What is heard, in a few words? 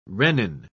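"rennin", English, British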